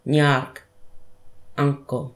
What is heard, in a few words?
"ngark" uncle